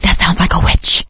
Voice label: heard witch, survivor voice; Left 4 Dead 2; producer